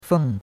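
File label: "feng", Chinese, fourth tone; Cours-de-Chinois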